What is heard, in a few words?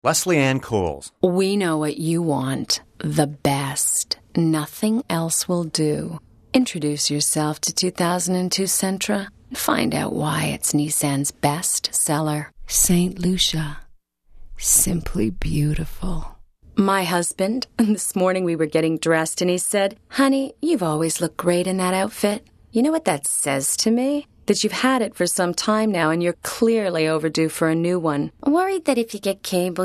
commercial female